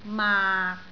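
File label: "maak6", Cantonese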